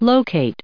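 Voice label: "locate", US English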